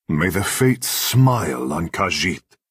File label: male implore; Khajiit